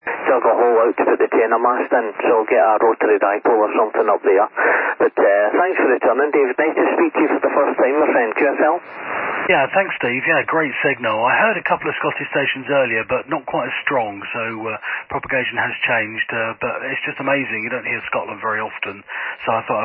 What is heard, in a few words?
28Mhz